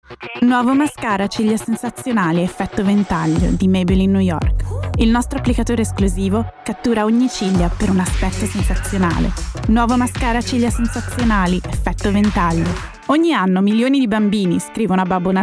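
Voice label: Italian Commercial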